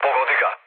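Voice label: Serbian attack position mood low, speech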